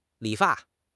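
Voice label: "li3 fa4", Chinese; HSK4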